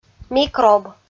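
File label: "микроб", Komi, female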